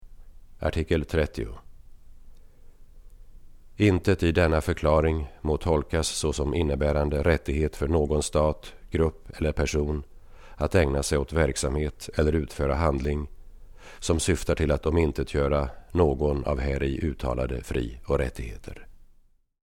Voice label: Swedish

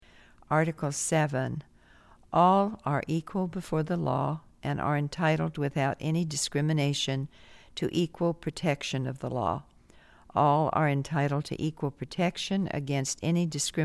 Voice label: English

